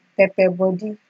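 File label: "pepper body", Nigerian Pidgin, female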